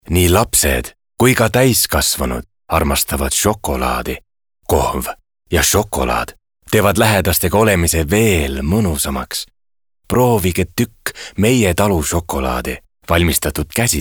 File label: EST male, Sweet